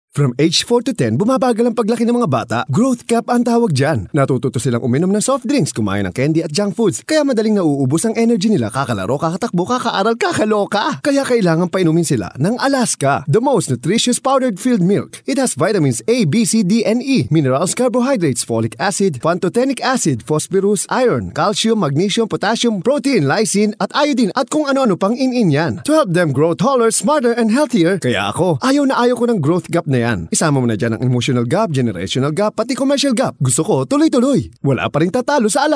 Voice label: Radio Ad; Alaska

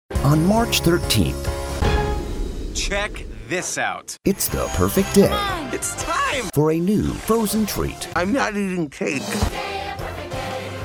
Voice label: TRAILER

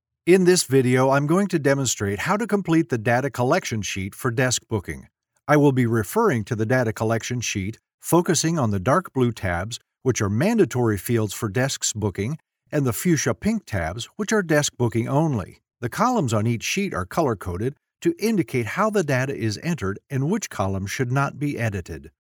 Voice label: elearning quickstart

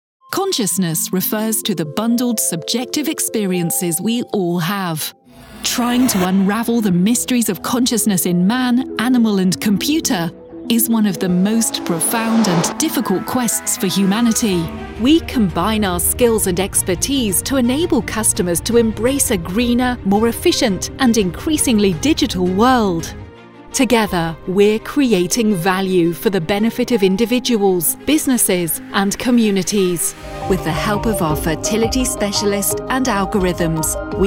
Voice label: Corporate Showreel

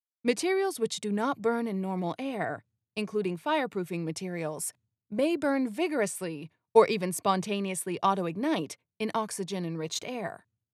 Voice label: Sample Serious

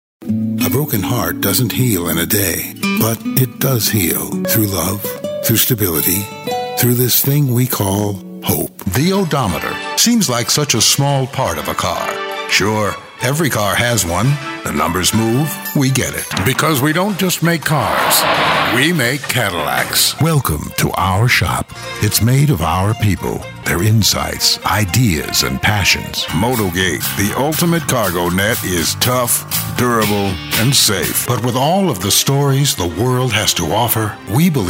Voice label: COMMERCIAL

